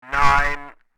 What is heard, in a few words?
CB radio male voice says